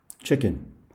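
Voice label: "chicken", English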